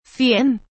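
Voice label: "cien" Spanish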